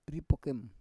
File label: "ripokem", Roon